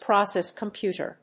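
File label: "process computer", English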